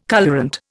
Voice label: "colourant", Indian

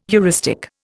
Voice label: "heuristic", Indian

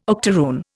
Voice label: "octaroon", Indian

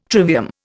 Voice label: "trivium", Indian

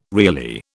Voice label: "Reilly", British

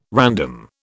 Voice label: "random", British

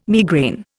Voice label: "migraine", Indian

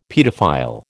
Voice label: "paedophile", American

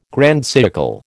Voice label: "grand siècle", American

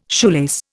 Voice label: "shoelace", Indian

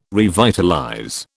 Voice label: "revitalize", British

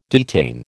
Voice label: "detain", American

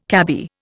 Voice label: "cabby", Indian

